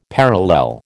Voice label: "parallel", American

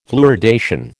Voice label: "fluoridation", American

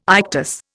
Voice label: "ictus", Indian

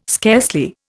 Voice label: "scarcely", Indian

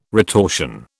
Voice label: "retortion", British

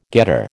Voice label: "getter", American

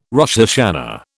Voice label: "Rosh Hashana", British

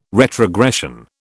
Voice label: "retrogression", British

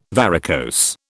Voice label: "varicose", British

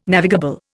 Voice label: "navigable", Indian

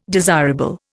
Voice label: "desirable", Indian

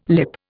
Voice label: "lip", Indian

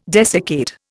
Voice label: "desiccate", Indian

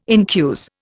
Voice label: "incuse", Indian

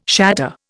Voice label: "shatter", Indian

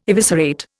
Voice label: "eviscerate", Indian

